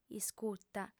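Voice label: "iscuta", female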